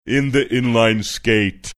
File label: saying in the inline skate low slow